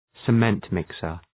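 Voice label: "cement-mixer", English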